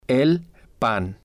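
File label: "el pan", Spanish, male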